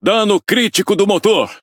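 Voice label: Brazilian Portuguese Speech Defective Modules Engine Damaged; World Of Warships; PT BR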